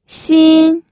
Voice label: "xin1", pinyin